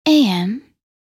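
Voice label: "am" English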